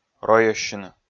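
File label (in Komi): "ройӧссьыны", Komi, male; kpv audio dict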